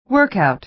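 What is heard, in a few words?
"workout", American English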